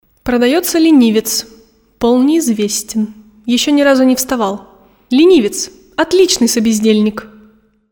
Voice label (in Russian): Diktori studii Reclama; Продается ленивец...